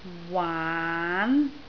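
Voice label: "waan2" Cantonese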